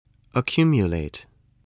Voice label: "accumulate", English voice